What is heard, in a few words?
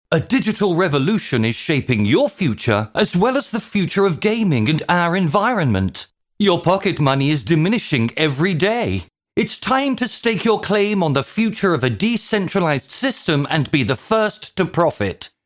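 Promotion Campaign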